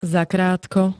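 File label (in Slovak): "zakrátko", Slovak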